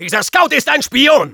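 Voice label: cloaked spy identify, German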